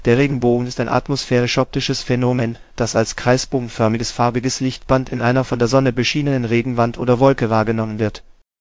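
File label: speaker, American English; piper-voices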